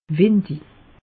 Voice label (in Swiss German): "windig", alsace; meteo